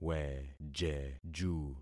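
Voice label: "wejeju", concatenated syllables; Affixing experiment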